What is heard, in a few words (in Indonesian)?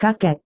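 "kakek", Indonesian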